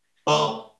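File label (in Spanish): Voice Acting, Spanish, male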